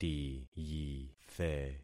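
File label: "tiyithe", concatenated syllables; Affixing experiment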